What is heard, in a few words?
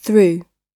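"threw", British English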